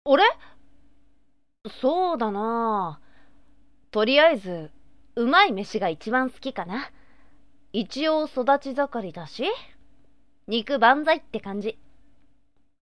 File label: sample boy